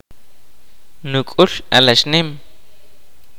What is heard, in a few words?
kaqchikel; To serve as midwife